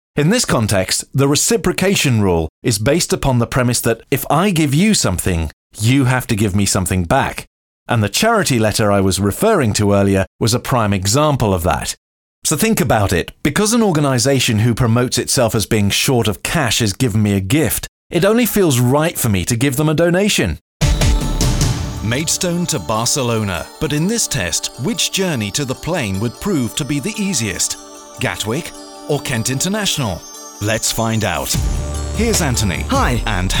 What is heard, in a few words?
english british podcasts